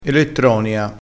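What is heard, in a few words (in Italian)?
"elettronia", vocabolario dialettale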